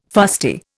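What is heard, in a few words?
"fusty", Indian English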